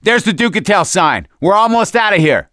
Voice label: misc directional, voice; gambler